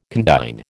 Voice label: "condign", American